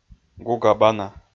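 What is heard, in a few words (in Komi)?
"гуга-бана", Komi, male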